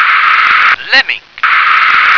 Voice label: "lemming", English

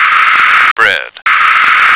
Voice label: "bread", American

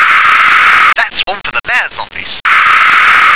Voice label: "one mayor", English

